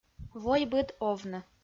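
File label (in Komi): "войбыд овны", Komi, female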